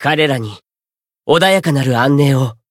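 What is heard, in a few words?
Voice win, Japanese; curio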